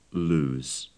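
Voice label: "lose", British English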